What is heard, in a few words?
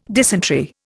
"dysentery", Indian